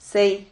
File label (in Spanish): "sey", Silabas